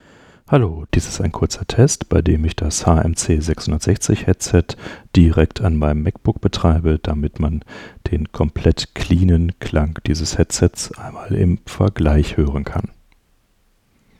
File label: HMC660 clean; Rodecaster